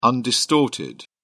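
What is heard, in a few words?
"undistorted", British English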